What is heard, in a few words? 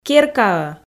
"керкаӧ", Komi